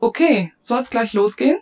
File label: German, Rock roll